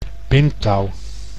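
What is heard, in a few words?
"bindtouw", Dutch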